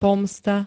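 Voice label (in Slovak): "pomsta", Slovak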